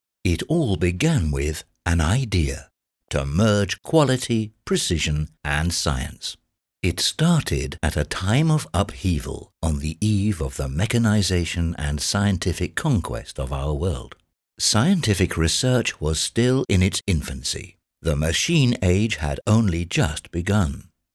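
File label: Czech short corporate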